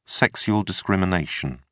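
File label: "sexual discrimination", British English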